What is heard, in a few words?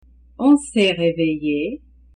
on s'est reveille(e), French; it, one